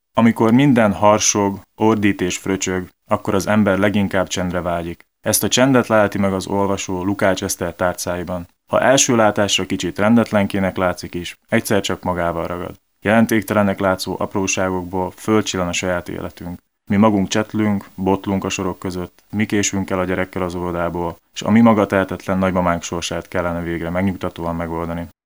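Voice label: Male, Hungarian, documentary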